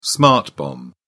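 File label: "smart bomb", English, British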